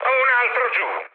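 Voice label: Italian player killed enemy mood high, speech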